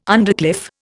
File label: "undercliff", Indian